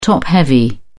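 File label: "top-heavy", British English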